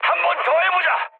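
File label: Korean bomb failure mood high, speech; War Thunder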